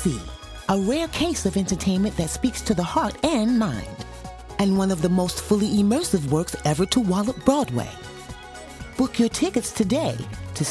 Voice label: Curious Incident Voice Over